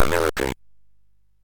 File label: MOS6581R3, Electronic Speech Systems